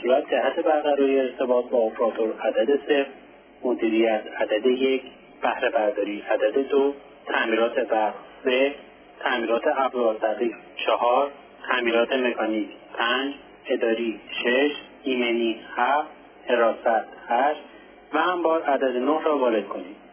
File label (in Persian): تلفن گویای غیر حرفه ای; شرکت پلی پروپیلن جم